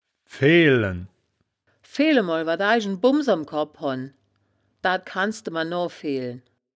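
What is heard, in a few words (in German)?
"fehlen", wittlicher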